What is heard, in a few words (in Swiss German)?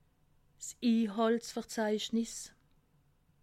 "inhaltsverzeichnis", alsace